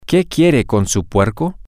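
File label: what do you want with your pork, Spanish, female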